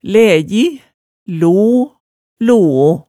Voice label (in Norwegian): "læji", hemsedalsmaalet tale